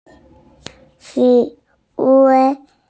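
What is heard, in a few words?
"zhǐ huī", Mandarin Chinese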